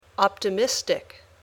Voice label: "optimistic", English